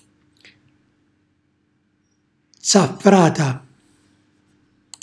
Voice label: "zzaffràta", Calabrese; lucertola; cucinacalabrese; glossario

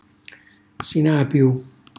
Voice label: "sinapiu", Calabrese; cucina calabrese

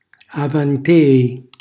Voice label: "avanteri", Calabrese; l'altro ieri

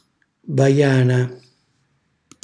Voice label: "vajana", Calabrese; baccello; cucinacalabrese; glossario

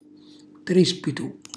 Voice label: "trispitu", Calabrese; regge il materasso; cucinacalabrese; glossario

